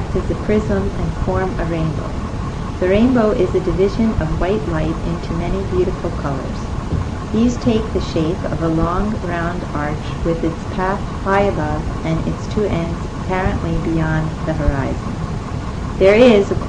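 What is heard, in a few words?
new york, International Dialects of English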